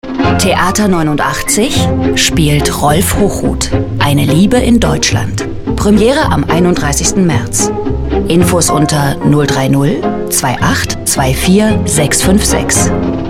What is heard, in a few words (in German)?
Radio Spot; Radio Eins; Theater 89